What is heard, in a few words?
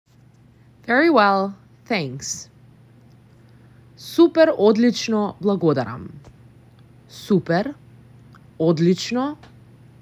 Very well thanks, Macedonian; the talking macedonian phrasebook